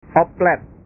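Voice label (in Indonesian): "oplet", Indonesian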